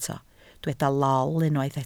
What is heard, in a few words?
"lol", Welsh